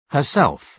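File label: "herself", British English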